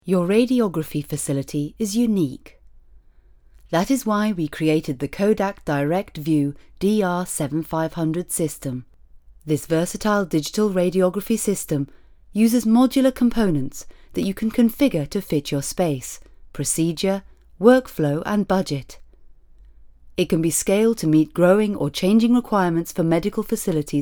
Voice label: Spot